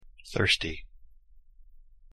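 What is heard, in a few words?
"thirsty", English pronunciation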